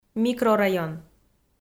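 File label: "микрорайон" Komi, female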